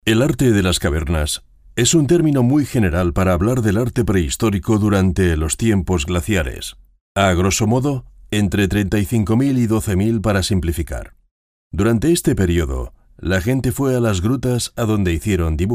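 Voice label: locutor spanish voiceover, male; Spanisch Sprecher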